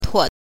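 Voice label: "tuo", neutral tone, Mandarin Chinese pinyin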